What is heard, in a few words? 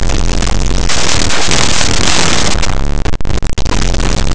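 "entender", Spanish; laboratori fonetica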